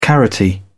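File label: "carroty", English, British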